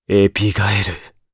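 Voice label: vox Japanese; Dimael; kingsraid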